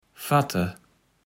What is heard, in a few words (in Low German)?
"fatte", kaevels platt